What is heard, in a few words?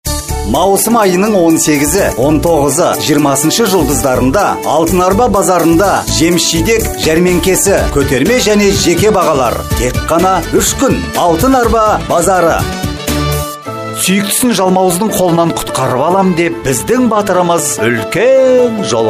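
kazakh male commercial; voice demos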